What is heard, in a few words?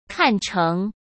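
"看成", Mandarin Chinese; chinese-flashcards; hsk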